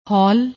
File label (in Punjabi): "hol", Punjabi; ਹੌਲ